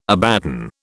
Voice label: "Abaddon", British

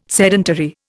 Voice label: "sedentary", Indian

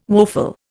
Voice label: "woeful", Indian

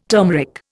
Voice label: "turmeric", Indian

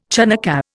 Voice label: "Chanukkah", Indian

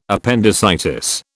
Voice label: "appendicitis", British

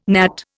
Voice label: "gnat", Indian

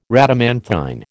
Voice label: "Rhadamanthine", American

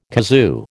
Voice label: "kazoo", American

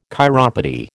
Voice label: "chiropody", American

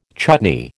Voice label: "chutney", American

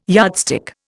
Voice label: "yardstick", Indian

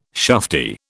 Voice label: "shufti", British

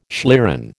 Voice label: "schlieren", American

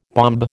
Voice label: "bombe", American

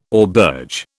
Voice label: "auberge", British

